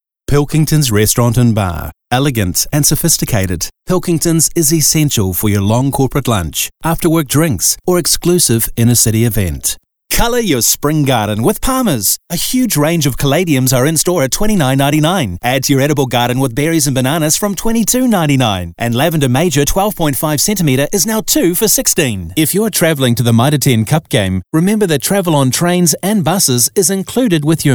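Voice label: New Zealand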